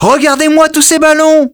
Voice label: French - dialogue, reveal